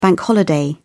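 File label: "bank holiday", British English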